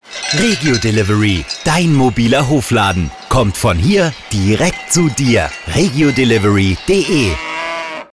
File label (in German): Werbespot mobiler Hofladen; Regio Delivery